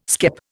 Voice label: "skep", Indian

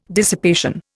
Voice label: "dissipation", Indian

